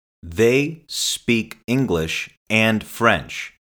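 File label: slow they speak english and french, accent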